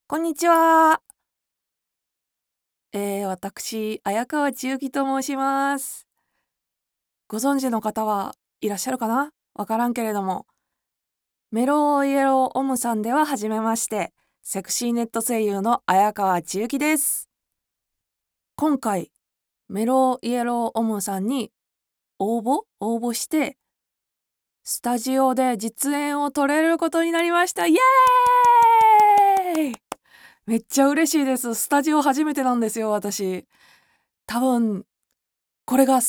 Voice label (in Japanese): 方言女子声優; 方言女子声優がスタジオで性器丸出し